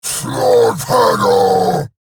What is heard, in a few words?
Attack, en; Warframe; Eidolon Grineer; Battle Barks